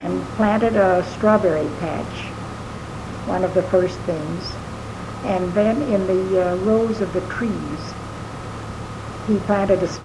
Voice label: Oral history with 85 year old white female Mesa County Colorado, american english dialect recordings